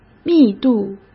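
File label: "密度", Mandarin Chinese; chinese-flashcards; hsk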